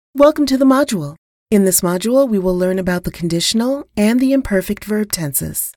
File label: eLearning